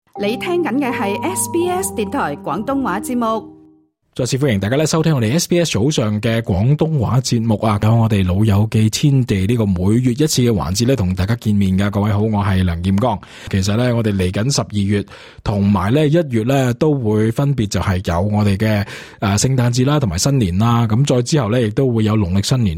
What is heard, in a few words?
cantonese; sbs